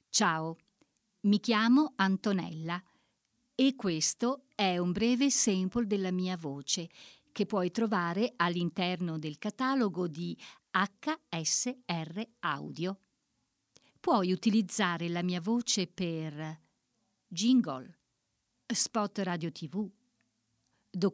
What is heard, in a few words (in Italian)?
Istituzionale; attori